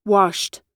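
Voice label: "washed", American English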